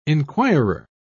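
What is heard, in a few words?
"enquirer", British English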